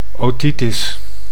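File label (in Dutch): "otitis", Dutch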